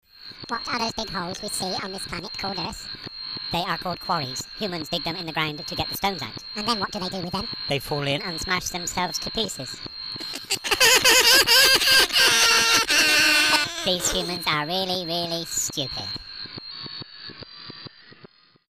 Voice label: Radio Ad